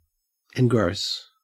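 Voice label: "engross", Australian English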